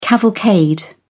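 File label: "cavalcade", British English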